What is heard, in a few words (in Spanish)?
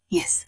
Voice Acting es